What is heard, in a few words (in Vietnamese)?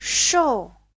"shou4", gầy